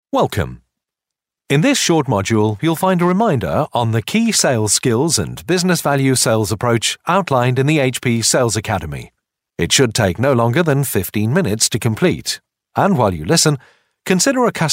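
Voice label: elearning, male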